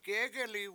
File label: they sit, Washo; gEgeli7